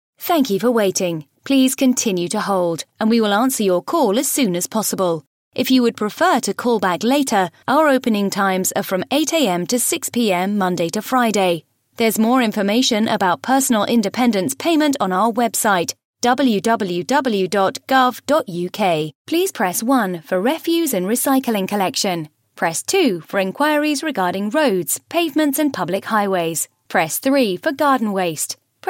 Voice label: female